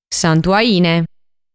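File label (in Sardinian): "Santuaine", Sardinian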